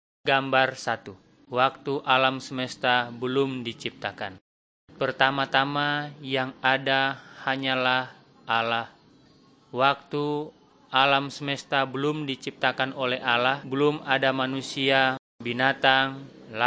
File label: malay manado; good news